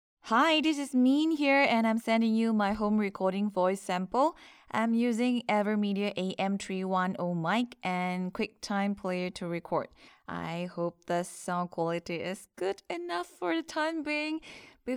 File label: Home Recording Eng